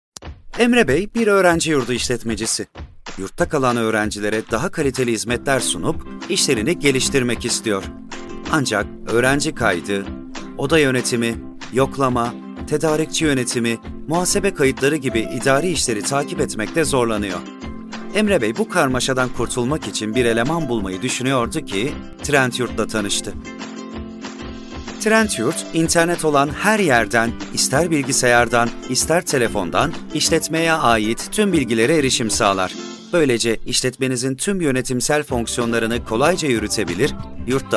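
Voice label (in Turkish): animasyon tanıtım filmi; ogrenci yurdu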